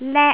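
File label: "lek1", Cantonese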